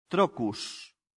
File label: "trochus", latin; santillana